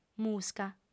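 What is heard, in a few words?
"musca", female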